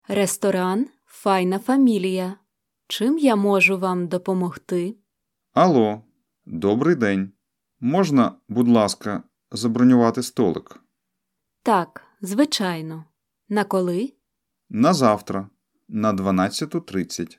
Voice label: Dialogue Slow